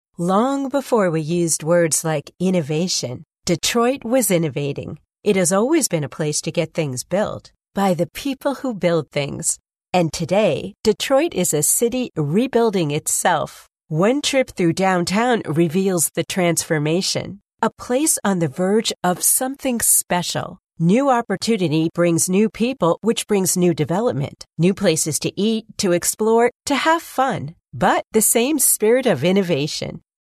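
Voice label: US English, female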